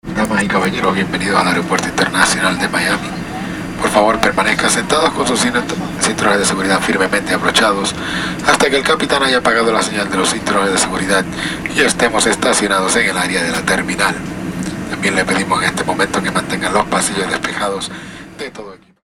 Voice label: airplane spanish

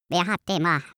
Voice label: cartoon male talk short

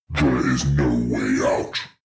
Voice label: demon dark voice thick speak no way out

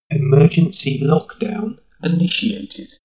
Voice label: shuttle male system status

